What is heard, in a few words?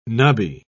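"nubby", British English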